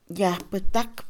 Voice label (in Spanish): establecimiento, Mixe, Mujer; INALI